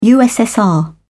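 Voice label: "ussr", British English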